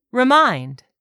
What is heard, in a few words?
"REMIND", English; 3000 words